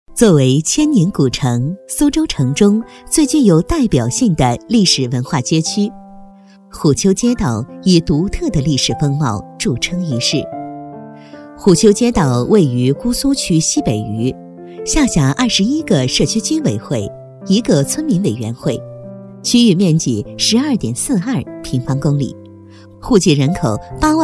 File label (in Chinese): 女 专题; 虎丘街道腊八节